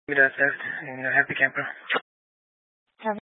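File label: Sheriff Dispatch, Scanner audio recording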